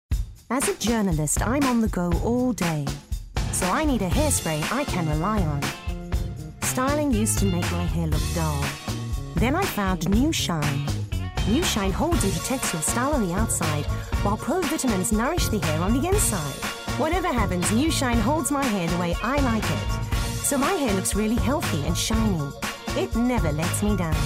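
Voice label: SA English, Commercial; GoLocalise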